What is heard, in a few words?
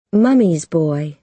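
"mummy's boy", American English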